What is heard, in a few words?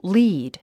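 "lead", English word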